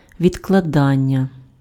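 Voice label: "відкладання", Ukrainian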